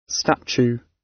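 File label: "statue", British English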